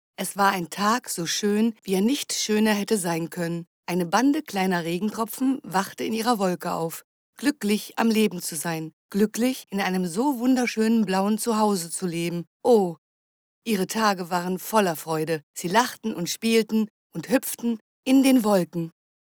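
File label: german female narration; voice demos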